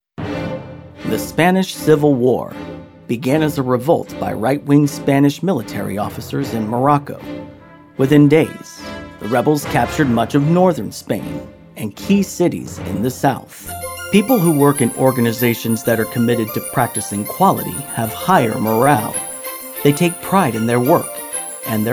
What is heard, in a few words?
Narration